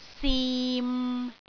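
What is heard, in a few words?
"sim6", Cantonese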